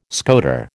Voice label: "scoter", American

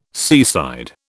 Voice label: "seaside", British

